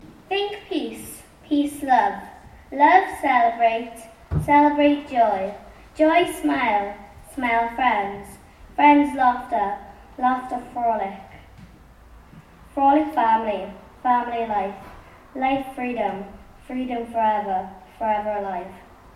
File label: reads her poem; from cilfynydd primary school